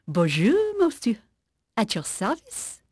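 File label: French female; Pirates Of The Caribbean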